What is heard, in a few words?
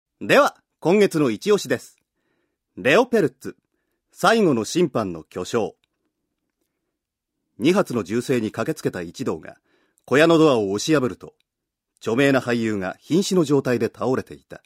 narration